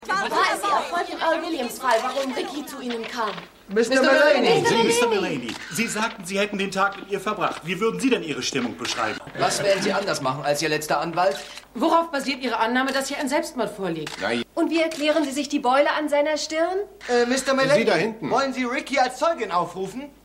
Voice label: Reporter F1 M1 M2 F2 F3 M3, Stimmproben; LA LAW